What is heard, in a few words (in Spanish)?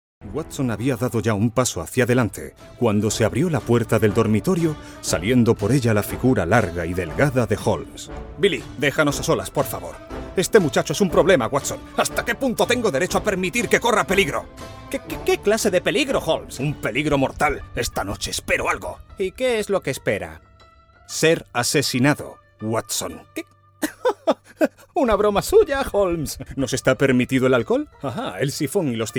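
otros España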